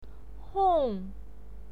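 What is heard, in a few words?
"hong", Mandarin Chinese pinyin, fourth tone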